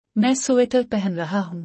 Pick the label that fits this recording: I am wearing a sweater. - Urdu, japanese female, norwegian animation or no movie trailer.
I am wearing a sweater. - Urdu